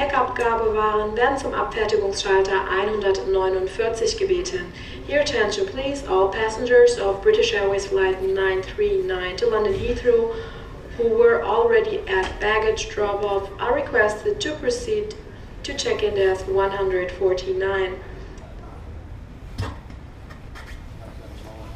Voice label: alle Passagiere, Flughafen Ansagen; Britisch Airways

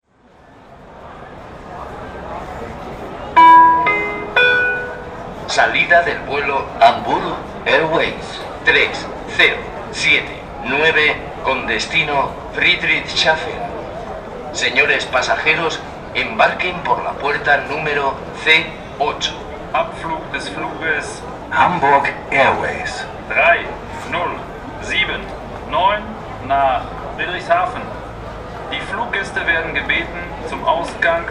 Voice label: Flughafen Ansagen; Hamburg Airways 3079; Friedrichshafen

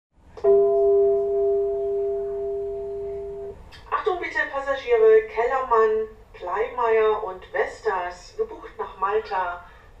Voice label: Flughafen Ansagen, Passagiere gebucht nach Malta

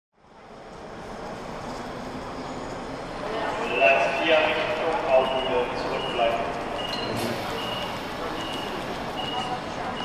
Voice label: S-Bahn Ansage Hamburg HBF

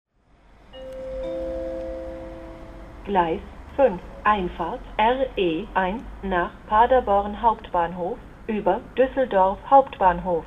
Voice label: RE 1 nach Paderborn, Bahnhofs Ansagen

